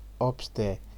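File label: "upstair", Nigerian Pidgin, male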